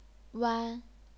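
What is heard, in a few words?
"wan", chinese pinyin, first tone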